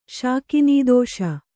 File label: "ಶಾಕಿನೀದೋಷ", Kannada